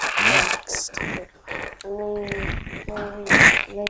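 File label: "lead", spoken word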